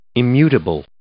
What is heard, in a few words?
"immutable", English